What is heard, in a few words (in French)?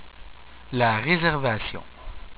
"La réservation", French